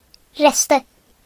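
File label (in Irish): "wrist", Irish; gcompris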